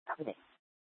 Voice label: Mesa PD Central Dispatch, scanner; Mesa Simulcast

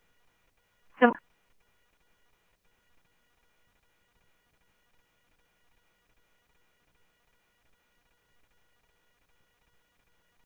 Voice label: PD Police, scanner audio

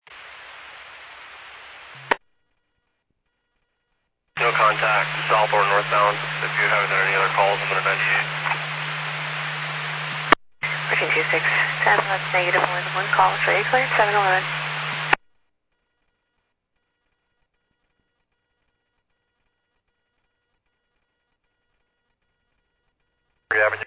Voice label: Medevac, scanner; NativeAirAmb

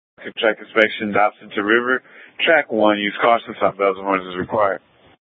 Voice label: Public Transit System Light Rail Ops, scanner; Regional Wireless Cooperative (RWC) - South Mountain High; Valley Metro

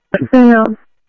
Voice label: Rail Ops, scanner audio; ThompsonPeakHigh

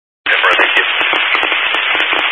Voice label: Highway Patrol, Phoenix Metro East Area, scanner

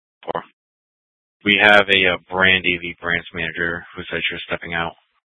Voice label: Dispatch; Scottsdale

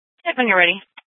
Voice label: Sheriff, scanner P25; Shrf Lakes

